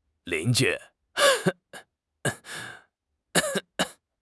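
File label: "lin2 ju1", Mandarin Chinese; HSK3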